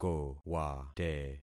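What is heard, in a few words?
"kowate", concatenated syllables; Affixing experiment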